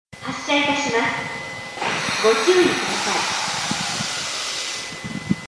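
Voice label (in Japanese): 八千代緑が丘駅 駅放送; 発車 -- 下り（船橋日大前から）の八千代緑が丘止まり列車の放送です。; yachiyo-midorigaoka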